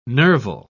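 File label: "nerval", British English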